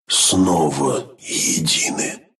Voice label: death, ru; dota2

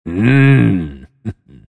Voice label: happy; lone druid

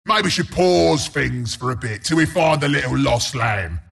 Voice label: announcer disconnect; bristleback

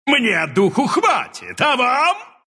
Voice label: attack, ru

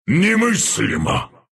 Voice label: death, ru; Zuus

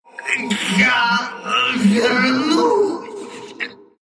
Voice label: death ru; Dota 2

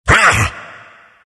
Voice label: earth spirit pain

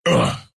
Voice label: death, ru; Dota 2

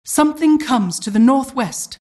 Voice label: announcer generic alert

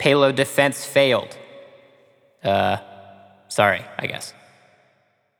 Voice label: Announcer Match Payload Enemy Successful; paladins

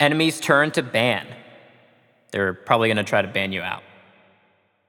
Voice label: Announcer Draft Enemy Ban; Paladins

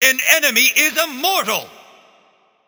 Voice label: Spree Enemy Kills, Announcer; 11 Kills; Mecha Commander; Paladins

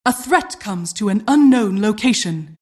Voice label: announcer generic alert

